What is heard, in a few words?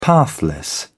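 "pathless", British English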